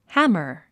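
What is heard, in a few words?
"hammer", English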